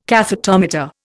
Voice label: "cathetometer", Indian